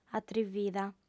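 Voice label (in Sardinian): "atrivida", female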